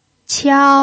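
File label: "qiao", pinyin, tone 1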